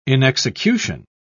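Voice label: "inexecution", British English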